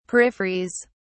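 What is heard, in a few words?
"peripheries", British English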